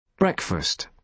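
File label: "breakfast", British English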